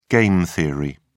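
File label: "game theory", English, British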